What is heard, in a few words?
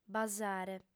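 "basare", female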